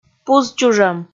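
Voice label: "пузчужӧм", Komi, female; kpv audio dict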